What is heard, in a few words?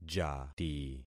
"jati", concat syllables; Affixing experiment